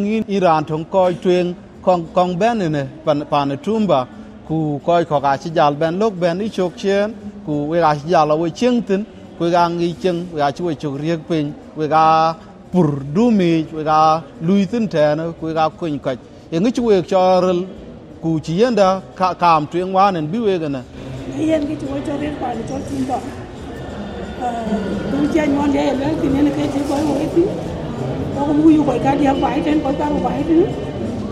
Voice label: Dinka; SBS Dinka

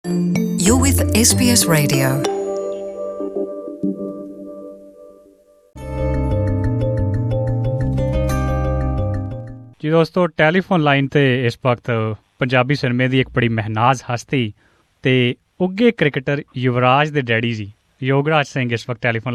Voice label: punjabi; SBS Punjabi; Yograj Singh speaks about Yuvraj Singh cricket career

